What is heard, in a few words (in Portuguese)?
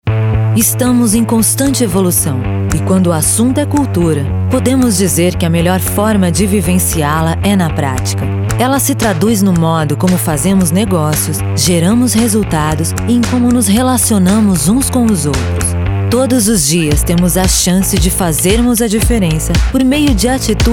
Locucao; BancoBV